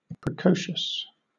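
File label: "precocious", British English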